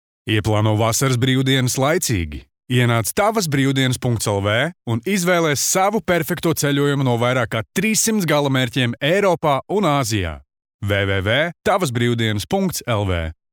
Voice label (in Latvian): Reklāma